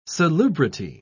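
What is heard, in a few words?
"salubrity", British English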